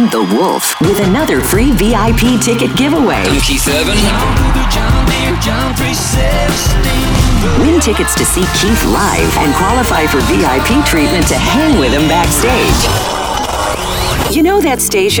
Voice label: Country Imaging